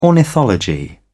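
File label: "ornithology", British English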